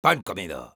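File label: positive vocalization, spanish; Pan comido...; Sniper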